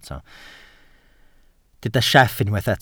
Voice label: "sieff", Welsh